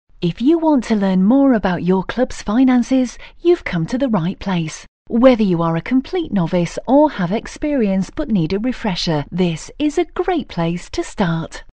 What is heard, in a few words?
British F, British English